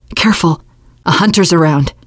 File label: heard hunter, teen girl; player; survivor; voice